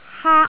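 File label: "haak", Cantonese, tone 1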